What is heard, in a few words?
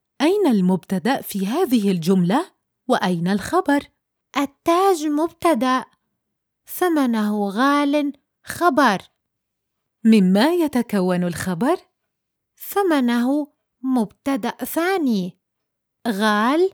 Ask & Answer Language lesson; E-Learning